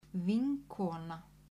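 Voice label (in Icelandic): "vinkona", Icelandic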